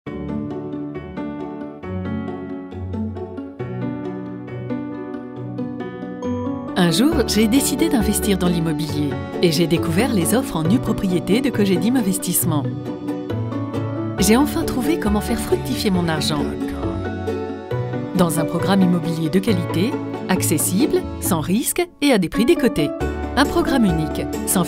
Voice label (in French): Voix vidéo; Cogedim